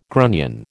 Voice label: "grunion", American